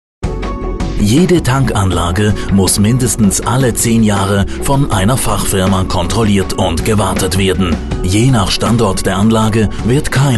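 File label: de corporate narration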